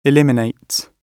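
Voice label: "eliminates", British English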